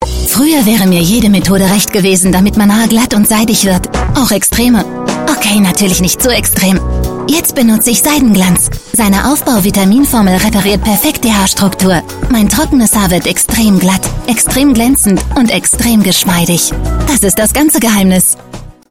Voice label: German Female; Seidenglanz